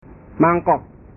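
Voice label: "mangkok", Indonesian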